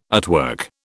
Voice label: "artwork", British

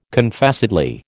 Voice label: "Confessedly", American

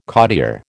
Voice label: "cottier", American

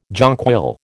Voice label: "jonquil", American

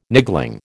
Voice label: "niggling", American

